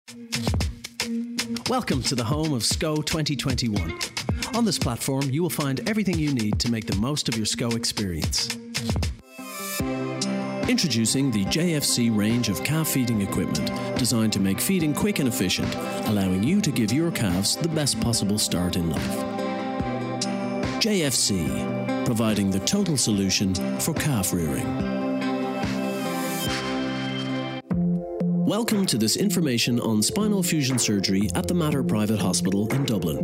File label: english irish commercial